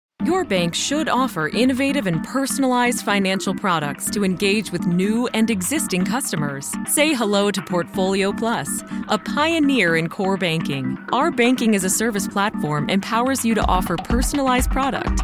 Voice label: Corporate VO; Los Angeles